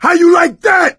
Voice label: battlecry, voice; source engine; left 4 dead 2

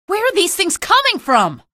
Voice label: alert antlions, female; video games; npc; source engine; episodic; episode 1

